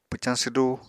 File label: "pwcañ swdu", Apatani; Household Items